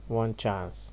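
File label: "one chance", Nigerian Pidgin, male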